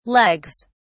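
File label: "legs", British English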